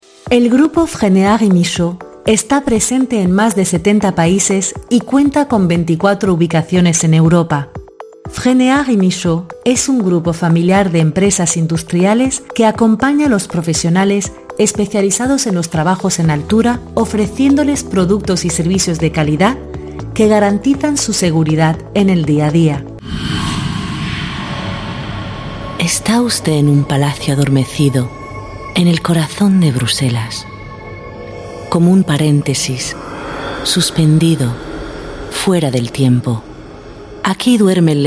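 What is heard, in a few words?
Spanish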